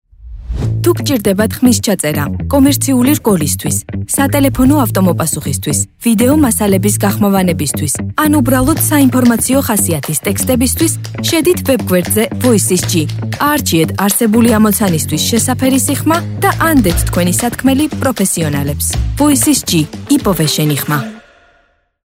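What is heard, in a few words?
Georgian, female, commercial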